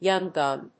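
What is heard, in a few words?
"young gun", English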